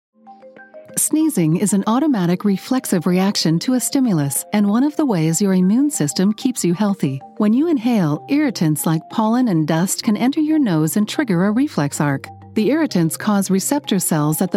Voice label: eLearning, Female

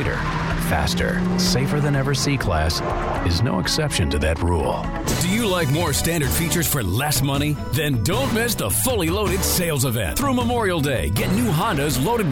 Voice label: Auto Dealer Male

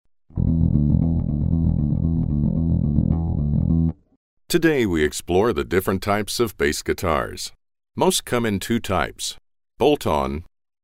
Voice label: eLearning Male

